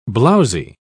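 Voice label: "blowzy", British English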